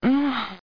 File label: Girl